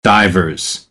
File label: "divers", American English